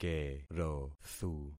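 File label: "kerothu", concatenated syllables; Affixing experiment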